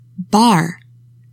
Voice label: "bar", english; 3000 words